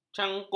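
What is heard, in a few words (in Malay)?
"canguk", Malay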